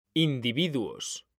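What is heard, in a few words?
"individuos", Spanish, male; mekanta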